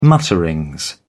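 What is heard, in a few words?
"mutterings", British English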